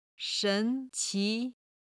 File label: "shen2 qi2", Chinese